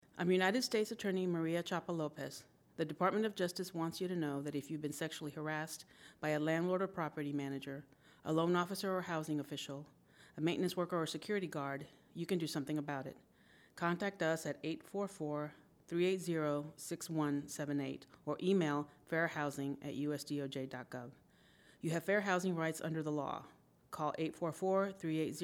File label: english psa